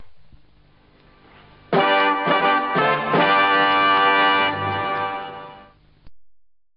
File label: yorkshire